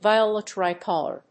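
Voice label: "Viola tricolor", English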